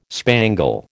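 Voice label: "spangle", American English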